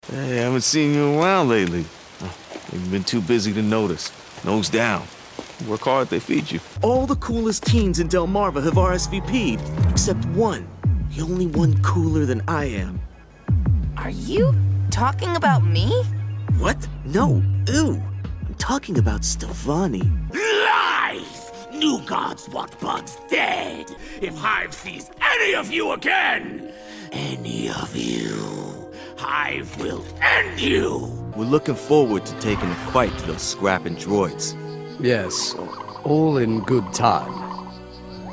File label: Animation